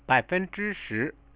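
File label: 10%, Chinese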